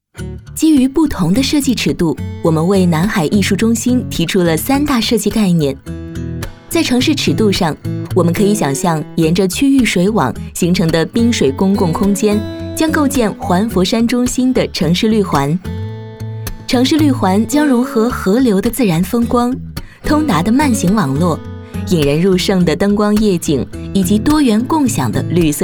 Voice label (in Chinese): 女 专题 活力汇报解说; 南海艺术中心